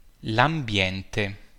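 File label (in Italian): "ambiente", Italian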